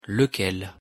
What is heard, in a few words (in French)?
"lequel", pronunciation fr